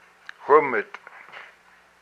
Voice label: "my belly", hupa

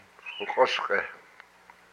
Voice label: "back of my neck", hupa

